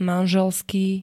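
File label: "manželský", Slovak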